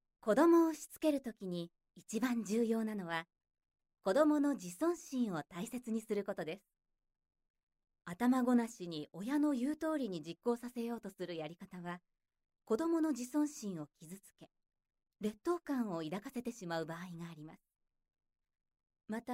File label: narration